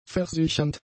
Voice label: "versichernd", German; adjectives